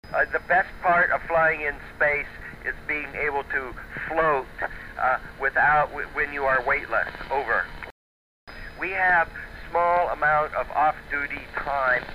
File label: ARISS Contact, freq 145.800, ant vertical, radio kenwood tw-4000